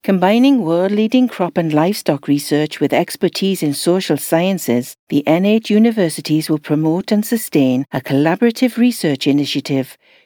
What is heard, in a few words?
Web Animation Welsh accent